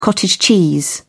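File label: "cottage cheese", British English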